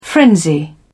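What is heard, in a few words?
"frenzy", British English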